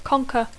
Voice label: "conquer", English